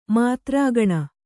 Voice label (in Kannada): "ಮಾತ್ರಾಗಣ", kannada